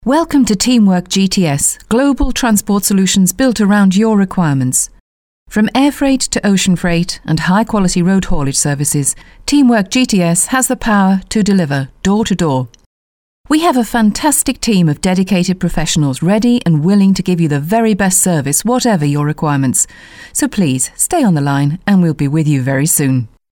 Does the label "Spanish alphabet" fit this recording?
No